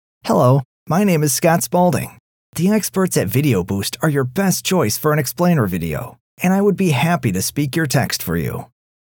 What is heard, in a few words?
American English; internationale voiceover